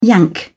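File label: "yank", British English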